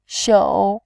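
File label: "xiu", Mandarin Chinese, tone 3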